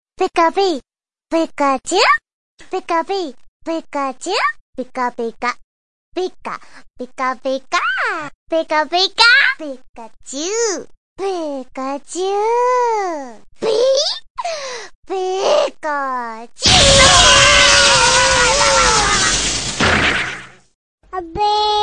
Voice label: abe yaar ek aur message ringtone